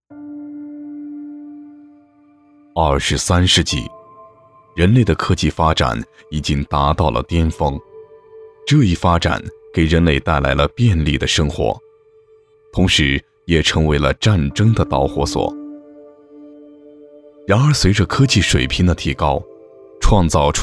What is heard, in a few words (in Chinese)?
科幻解说; 人工智能